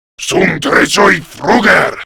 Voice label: Cover Me, Grineer Male; Warframe; Battle Barks